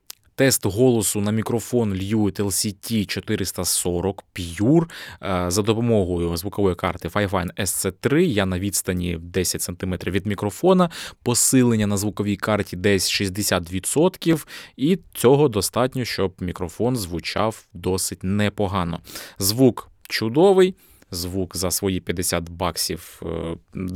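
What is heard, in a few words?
lewitt 440 pure voice test; Тест Голосу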